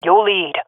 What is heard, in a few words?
following friend, swat fem; vo; Counter Strike Model Pack; CS:GO